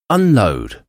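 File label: "unload", English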